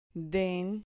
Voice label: "den", Spanish syllable

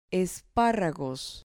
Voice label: "esparragos", Spanish

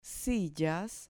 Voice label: "sillas", Spanish

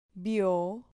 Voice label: "vio", Spanish syllable

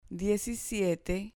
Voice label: "diecisiete", Spanish

